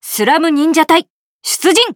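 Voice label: start, Japanese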